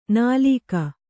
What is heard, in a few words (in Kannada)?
"ನಿಲುಕು", Kannada